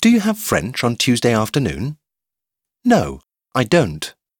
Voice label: tuesday english dialogue; timetable